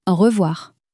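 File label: "au revoir", French, female; flashcards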